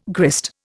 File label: "grist", Indian